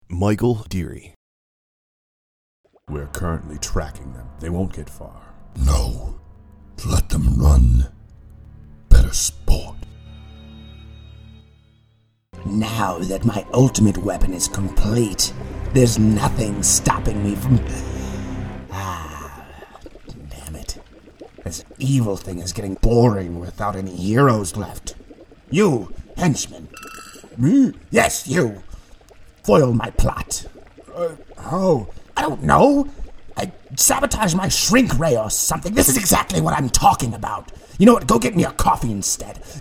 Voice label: animation; Innovative LA